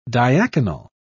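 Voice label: "diaconal", British English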